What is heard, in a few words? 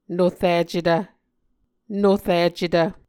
"noricetv", Muscogee